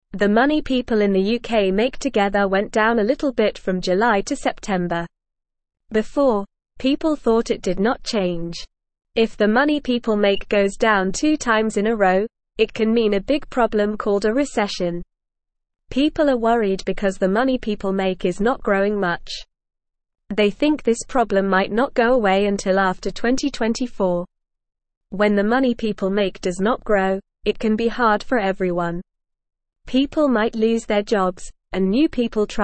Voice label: English Beginner NORMAL Reading; English Newsroom